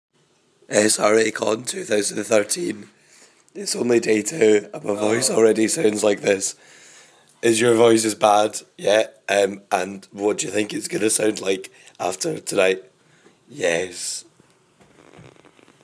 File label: broken voice